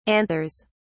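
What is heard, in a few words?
"anthers", British English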